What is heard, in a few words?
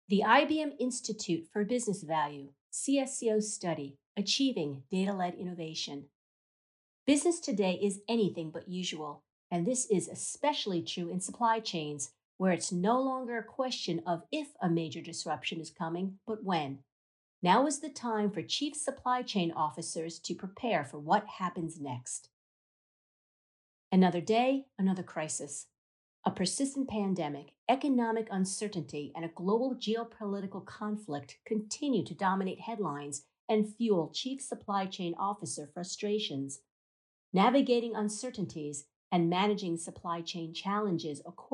narration; csco 2022; C-Suite; institute business value